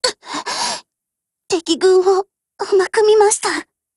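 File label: Japanese, Voice; BREAK; GF Alarm; SV98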